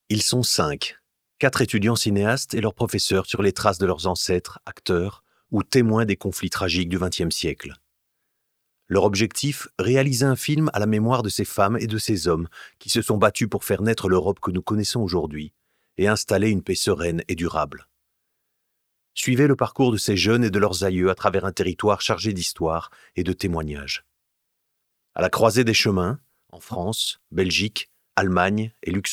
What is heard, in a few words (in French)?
intro narrateur, fr